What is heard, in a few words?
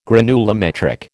"granulometric", American